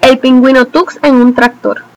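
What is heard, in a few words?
tux tractor desc, Spanish; tuxpaint-stamps; vehicles; farming; cartoon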